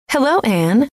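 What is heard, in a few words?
hello, female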